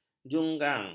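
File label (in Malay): "junggang", Malay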